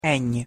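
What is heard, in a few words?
"ny", Hungarian pronunciation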